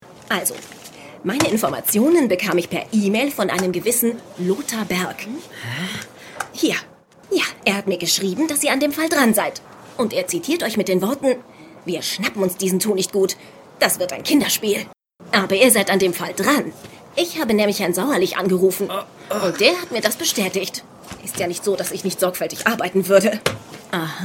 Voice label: Hoerspiel Audio Play Voice Sample; TKKG junior

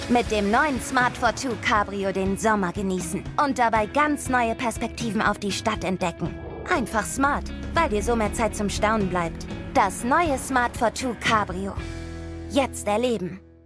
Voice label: Commercial

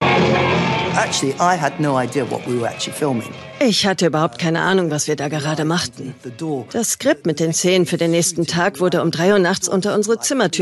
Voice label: Voice Over, Doku, German; NDR Doku Wim Wenders Voice Over

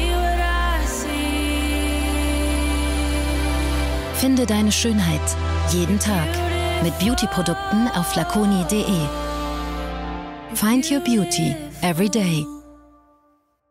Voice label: commercial; Flaconi

